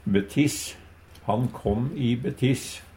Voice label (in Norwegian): "i betiss", Numedalsmål; Adverb